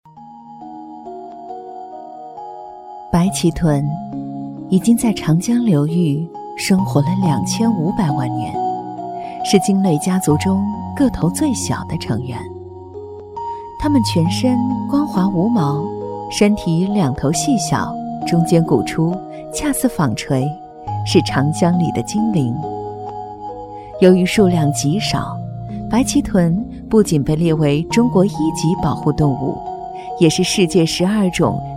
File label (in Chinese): 女, 纪录片, 白鳍豚, 讲解柔和